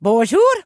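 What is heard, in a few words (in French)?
"bonjour", French, dwarf, female; PhoenixCraft-1.16.5; PhoenixNPCPack; minecraft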